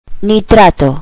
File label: "nitrate", spanish